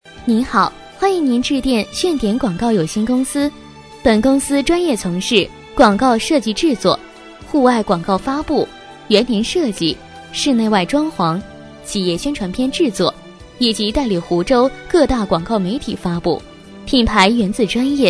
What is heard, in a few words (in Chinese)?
女声广告传媒彩铃